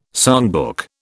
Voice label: "songbook", British